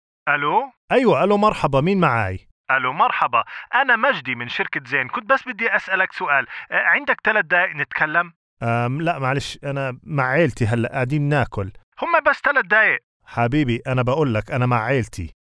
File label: palestinian jordanian advert